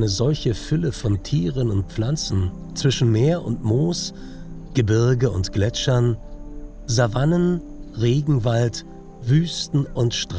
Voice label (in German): Afrika Doku; LOFT VOICES